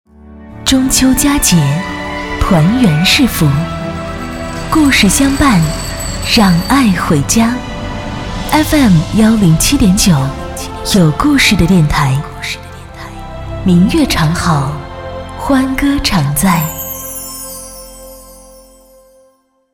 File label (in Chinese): 女 温暖 中秋节 故事频道